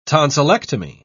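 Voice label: "tonsillectomy", British English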